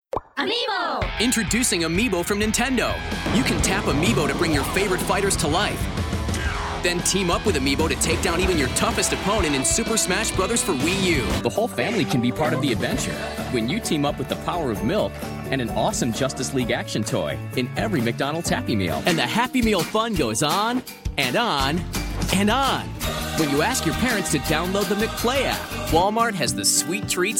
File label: Commercial